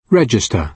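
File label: "register", British English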